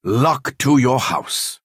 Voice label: Dunmer male hello

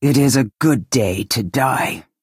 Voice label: female concede

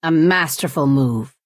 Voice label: Redguard female; nice play

